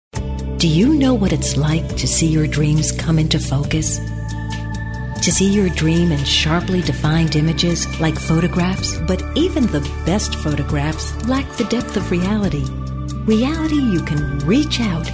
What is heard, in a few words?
narration, women